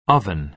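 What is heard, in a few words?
"oven", American English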